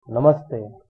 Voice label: "hello", Hindi

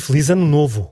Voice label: new year, portuguese

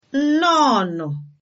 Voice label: congratulations, igbo

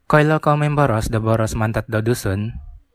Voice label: do you speak a language other than, Central Dusun

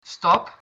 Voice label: stop, dutch

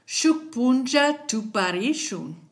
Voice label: goodbye, kichwa

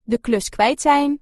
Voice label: marbles dutch

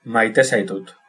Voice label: "I love you", Basque

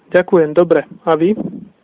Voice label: fine, slovak

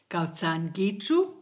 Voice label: hello, kichwa

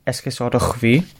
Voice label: excuse me, gwentian

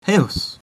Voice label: "hello", Latin

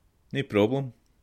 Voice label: youre welcome scots

